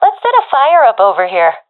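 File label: request fire, professional female; vo; agents; Source Model Packs; Counter Strike Model Pack; CS2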